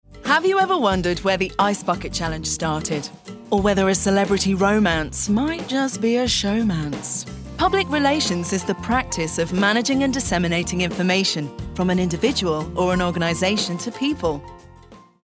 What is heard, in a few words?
Narration WEB EXPLAIN